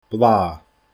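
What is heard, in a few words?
"blàth", Scottish Gaelic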